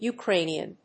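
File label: "Ukrainian", English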